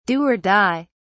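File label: "do or die", British English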